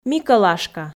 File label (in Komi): "микӧлашка", f